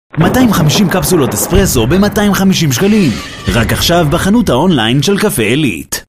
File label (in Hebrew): קריין רדיו